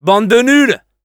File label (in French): Scout taunts fr; "Bande de nuls !"